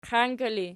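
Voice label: "qianki" ergative, Archi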